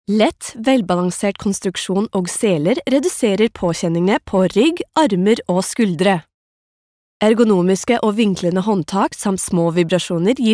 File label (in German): Nachvertonung Film Sprecherin Norwegisch